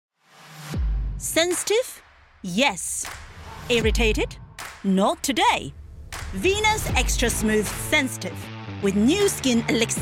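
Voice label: Chinese, Accented English Commercial; GoLocalise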